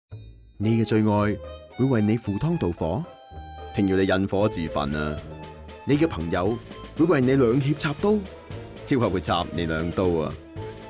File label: Radio Cantonese; Friends; 企硬2013(朋友篇)